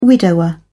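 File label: "widower", British English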